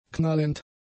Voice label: "knallend", German; adjectives

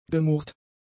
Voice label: "gemurrt", German; adjectives

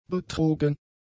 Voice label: "betrogen", German; adjectives

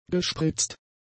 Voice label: "gespritzt", German; adjectives

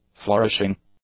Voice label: "flourishing", english; adjectives

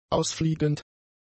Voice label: "ausfliegend", German; adjectives

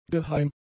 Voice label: "geheim", German; adjectives

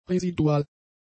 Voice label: "residual", German; adjectives

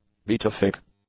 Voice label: "beatific", English; adjectives

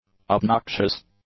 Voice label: "obnoxious", english; adjectives

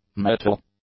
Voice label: "metal", English; nouns

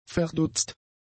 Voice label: "verdutzt", German; adjectives

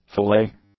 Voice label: "fillet", English; nouns

